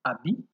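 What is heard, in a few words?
"abi", Nigerian Pidgin, male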